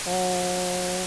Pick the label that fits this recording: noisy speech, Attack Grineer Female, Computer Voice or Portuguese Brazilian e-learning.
noisy speech